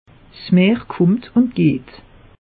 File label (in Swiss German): "meer kummt", alsace; meteo